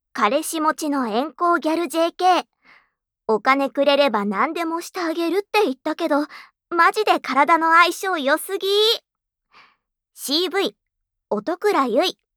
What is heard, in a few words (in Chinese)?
導讀